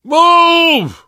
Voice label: emphatic go, survivor voice; source engine; left 4 dead 2; namvet